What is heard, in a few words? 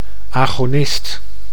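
"agonist", Dutch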